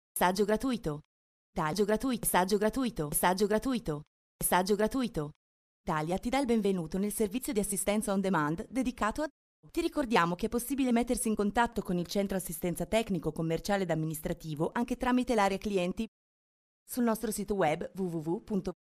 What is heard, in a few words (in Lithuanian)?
(MOT) Italu balsas; moteris